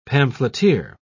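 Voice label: "pamphleteer", British English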